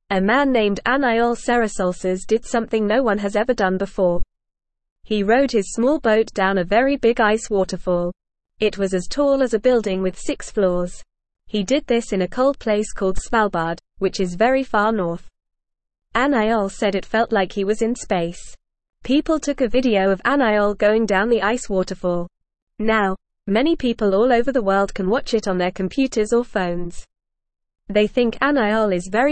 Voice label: English Beginner FAST Reading; Man rides boat down big ice waterfall; English Newsroom

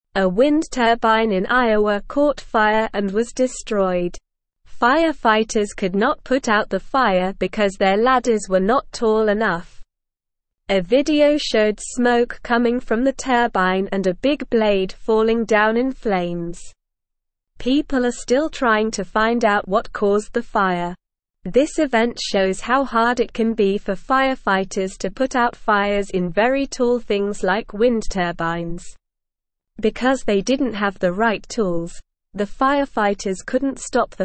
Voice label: English Newsroom Lower Intermediate SLOW Reading; Firefighters Struggle to Put Out Windmill Fire

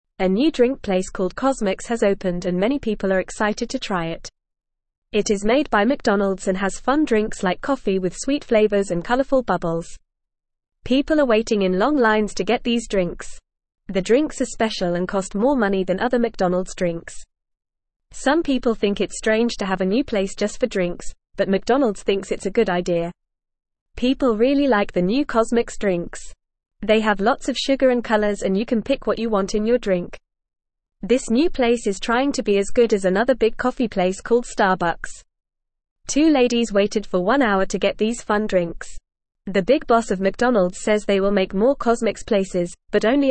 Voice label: Beginner FAST Reading, English; English Newsroom; McDonalds Opens Fun Drink Place Called CosMcs

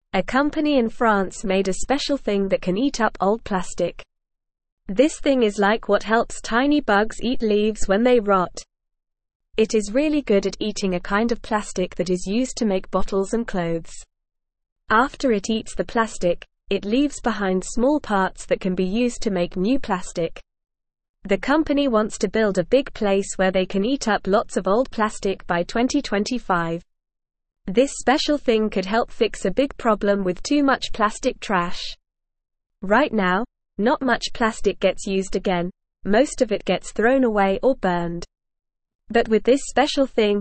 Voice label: English Beginner NORMAL Reading; Amazing Plastic Eating Thing Helps Make New Plastic; English Newsroom

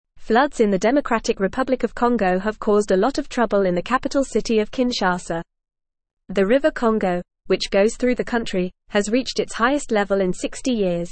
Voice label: English FAST Reading; Congos Capital Kinshasa Devastated by Record Floods; English Newsroom

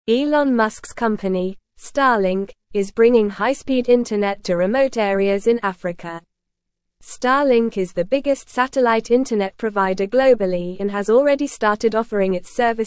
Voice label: English Upper Intermediate NORMAL Reading; Starlink Revolutionizing African Connectivity with Satellite Internet

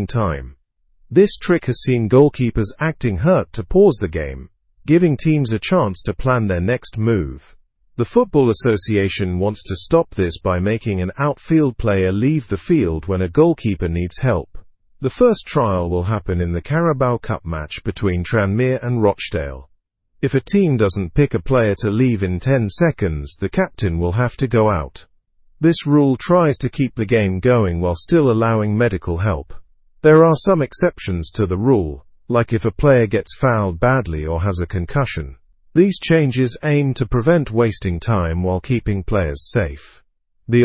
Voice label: english through news upper intermediate; english football s new strategy against tactical timeouts

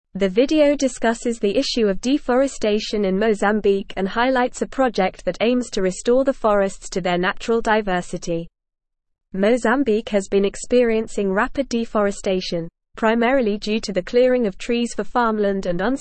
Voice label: English NORMAL Reading; Restoring Mozambiques Forests A Sustainable Partnership for

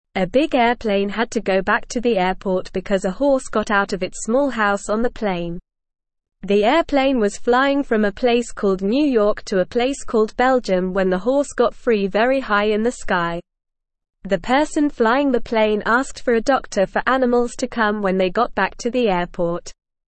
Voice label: English Beginner NORMAL Reading; English Newsroom; Horse Escapes Plane Causes Trouble Needs Help

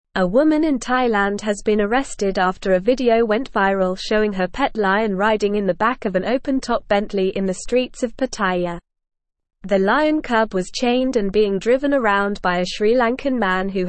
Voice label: English Newsroom Advanced NORMAL Reading; Woman Arrested After Viral Video of Lion in Bentley

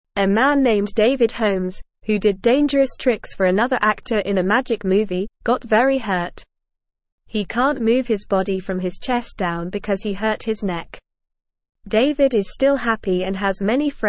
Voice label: English Beginner NORMAL Reading; English Newsroom; David Holmes The Strong Man Who Overcame Hardship

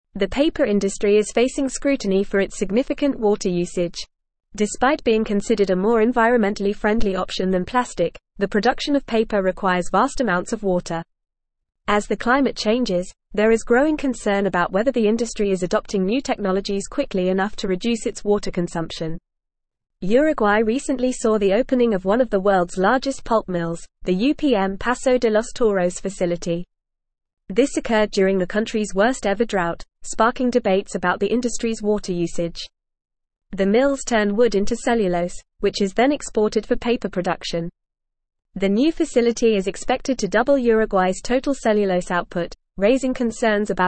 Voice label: English Newsroom Advanced FAST Reading

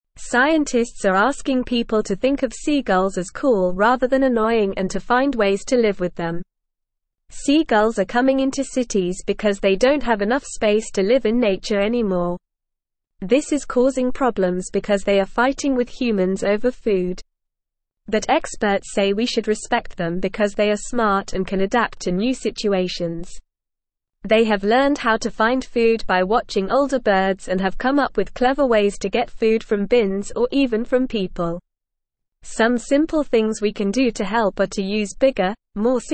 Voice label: English NORMAL Reading

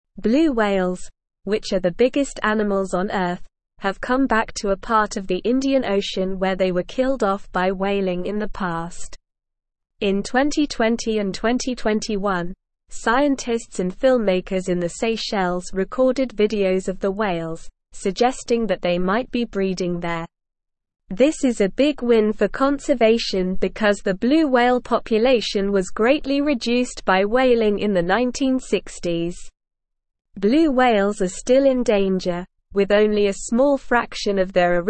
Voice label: English Newsroom Upper Intermediate SLOW Reading; Blue Whales Return to Seychelles a Conservation Win

